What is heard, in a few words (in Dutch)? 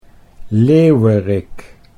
"leeuwerik", Dutch